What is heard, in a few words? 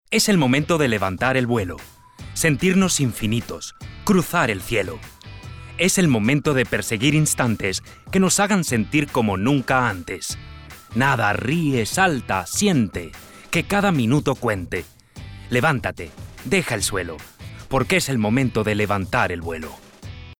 male latam venez uru comercial; ideasonora